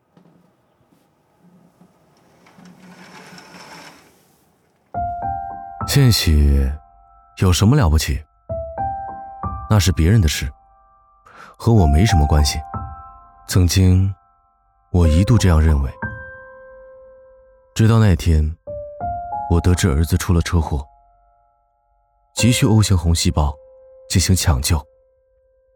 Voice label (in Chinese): 自述